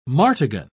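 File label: "martagon", British English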